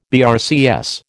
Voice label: "BRCS", American

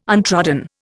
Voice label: "untrodden", Indian

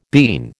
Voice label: "bean", American

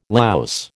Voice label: "louse", American

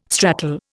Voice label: "stratal", Indian

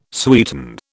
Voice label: "sweeten", British

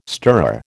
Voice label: "stirrer", American

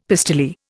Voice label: "pistoleer", Indian; Dictionary

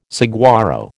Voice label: "saguaro", American

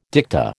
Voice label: "dicta", American

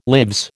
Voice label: "lives", American

